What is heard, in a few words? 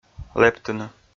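"лэптыны", Komi, male; kpv audio dict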